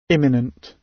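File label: "imminent", British English; Time, history; Thời gian, lịch sử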